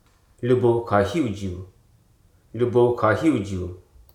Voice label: "jaunt", Hruso